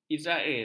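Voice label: "izrail", Malay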